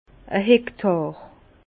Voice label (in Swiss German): "hektar", Bas Rhin; vin; un hectar